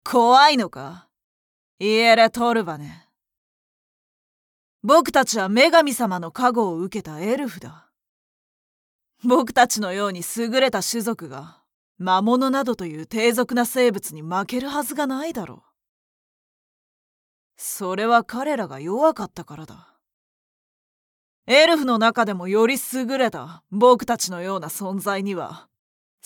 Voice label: arrogant